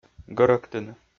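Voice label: "гӧрӧктыны", Komi, male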